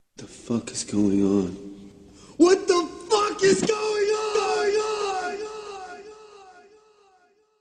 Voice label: Angry Man